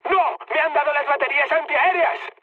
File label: damaged aa, mood high, speech; War Thunder